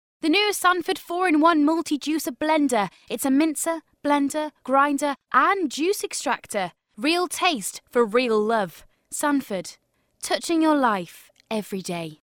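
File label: English Welsh female, welsh english voice over talent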